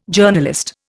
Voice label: "Journalist", Indian